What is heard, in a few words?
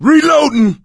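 reloading, male; Left 4 Dead 2; coach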